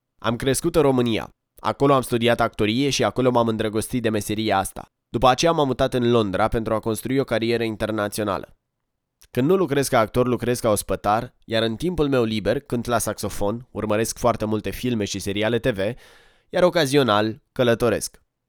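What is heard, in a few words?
Romanian, General; GoLocalise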